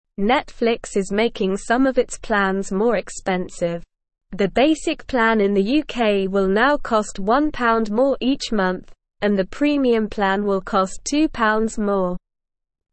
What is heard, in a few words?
Beginner SLOW Reading, English; English Newsroom; Netflix Makes Plans More Expensive Wants More Money